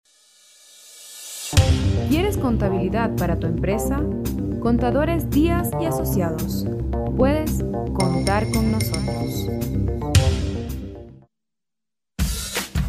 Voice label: (MOT) Ispanu balsas; moteris

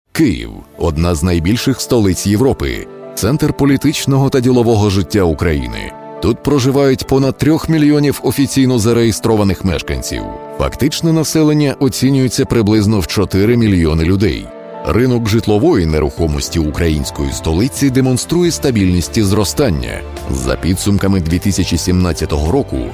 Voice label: Ukrainieciu balsas, vyras

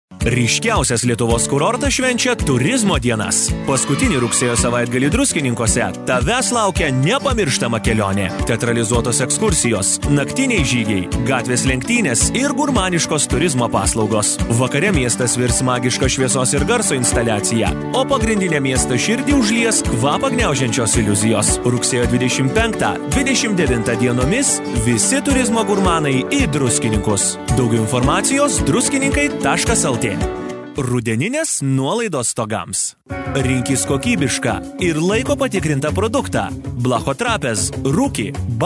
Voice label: lietuviu, vyras

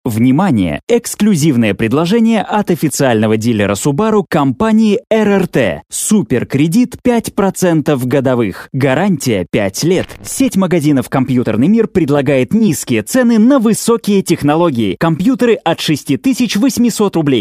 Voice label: Rusiškas balsas, vyras; rusu

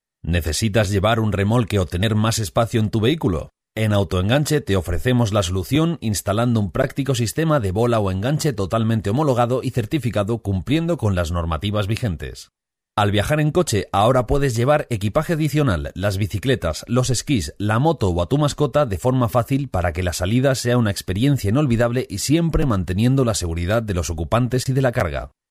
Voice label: Ispanu balsas, vyras

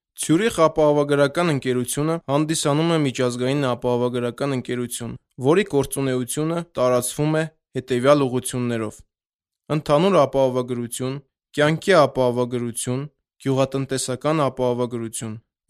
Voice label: (VYR) Uzbeku balsas; vyras

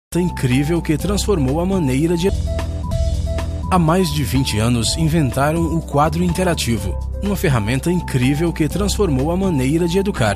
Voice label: (VYR) Brazilu balsas, vyras

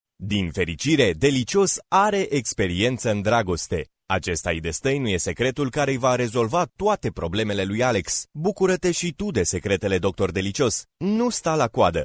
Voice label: (VYR) Rumunu balsas; vyras

